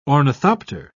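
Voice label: "ornithopter", British English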